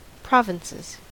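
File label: "provinces", US English; pronunciations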